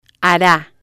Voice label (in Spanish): "hara", spanish